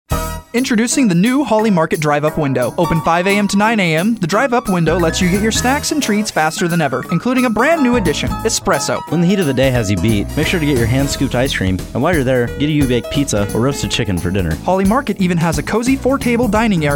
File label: commercial; sales; holleymarket